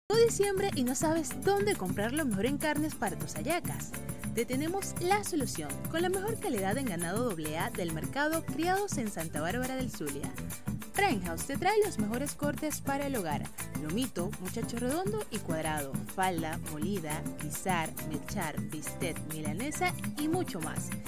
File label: Spanish (LA), Female